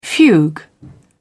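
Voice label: "fugue", British English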